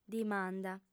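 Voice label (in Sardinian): "dimanda", female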